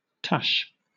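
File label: "tush", British English; pronunciations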